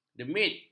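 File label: "demit", Malay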